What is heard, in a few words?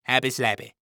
Cartoon Voice Happy Slappy